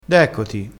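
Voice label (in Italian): "deccoti", dialettale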